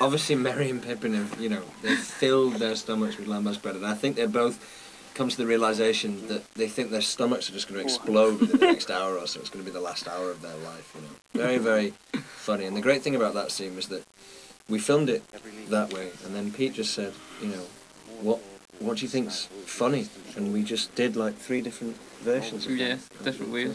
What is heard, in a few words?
commercial; Fellowship's Comments